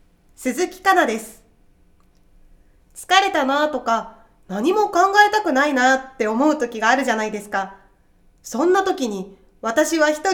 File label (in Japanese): フリートーク